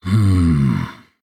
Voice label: Male BloodElf Thinking

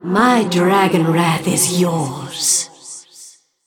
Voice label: Female Dragon Play

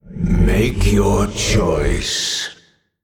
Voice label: Male Old God Emote Thinking

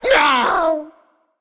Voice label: Male Demon Death

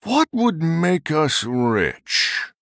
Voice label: Male Human Thinking

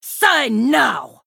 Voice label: Female Draenei Attack

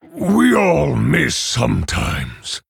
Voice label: Male Orc Ogre Oops

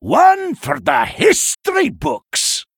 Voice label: male dwarf emote start

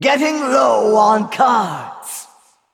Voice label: Female Val'kyr Low Cards

